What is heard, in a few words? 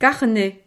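"carnet", French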